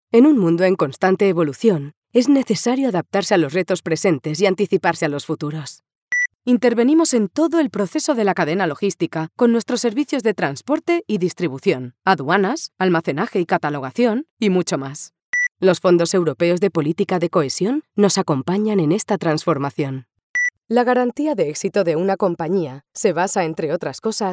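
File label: Spanish, Female, Industria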